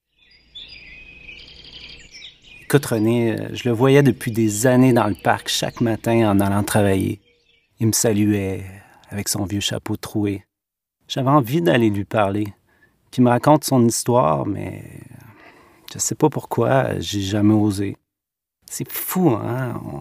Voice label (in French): Voix empathique sobre sincere; Sans abri